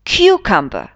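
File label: "cucumber", English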